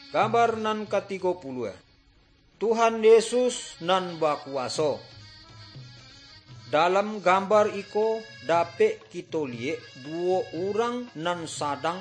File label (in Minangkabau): good news minangkabau